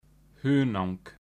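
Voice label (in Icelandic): "hunang", Icelandic; matarhefti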